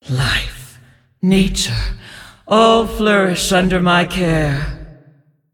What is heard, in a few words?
Female Titan Keeper Play